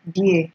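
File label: "dear", Nigerian Pidgin, female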